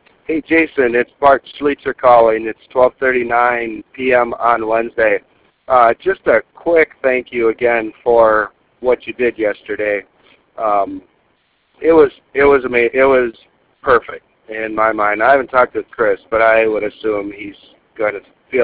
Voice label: voicemail